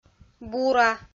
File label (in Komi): "бура" kpv f